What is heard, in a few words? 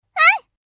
Yes, voice commands Anime Loli; svencoop